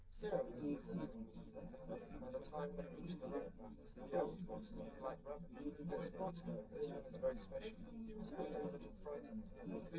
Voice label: male liverec 250ms 5cm; SiSEC10; underdetermined